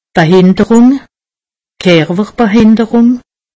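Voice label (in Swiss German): "behinderung", Alsatian; medecine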